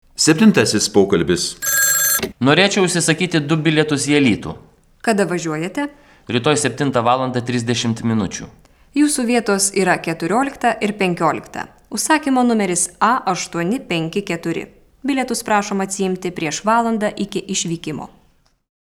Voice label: Dialog